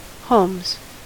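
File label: "homes", US English; pronunciations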